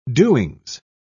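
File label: "doings", British English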